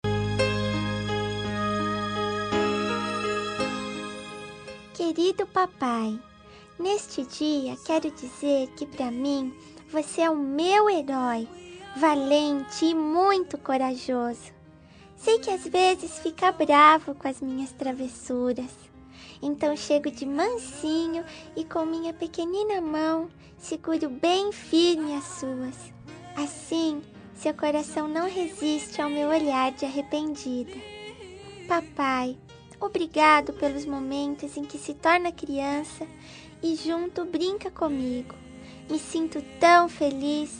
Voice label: infantil pai fem, Aniversário Voz Infantil Pai – Voz Feminina; Telemensagens

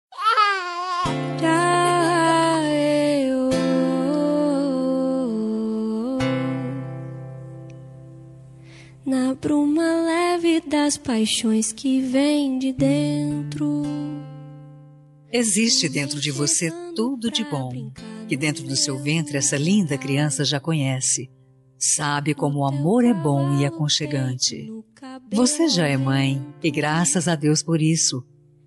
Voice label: futura mae fem; dia das maes para futura mamae voz feminina

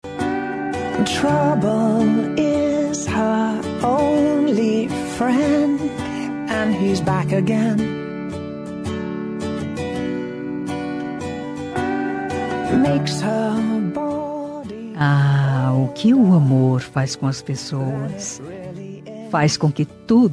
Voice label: esposo fem, voz feminina; Dia dos Pais; marido

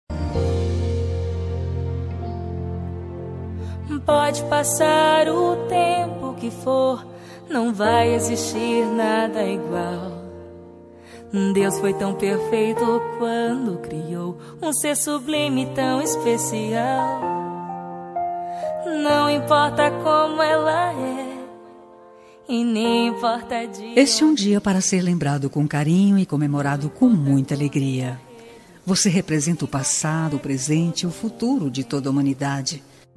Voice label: mae, Voz Feminina; dia das mulheres para mae voz feminina; linda

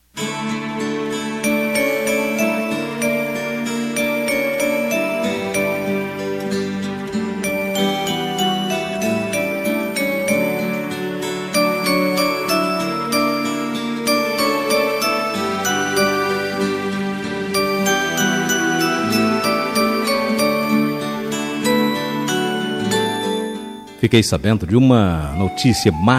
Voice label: Voz Masculina, Telemensagem; Telemensagem de Maternidade

